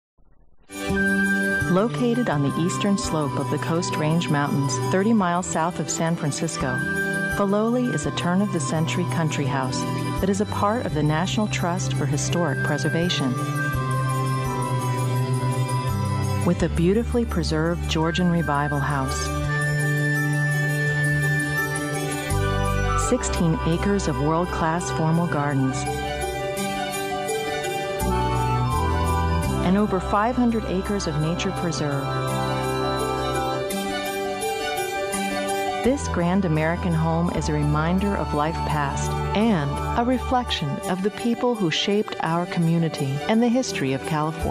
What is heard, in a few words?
Narration, Female; Narration, Corporate, Documentary